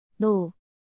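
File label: "노", Korean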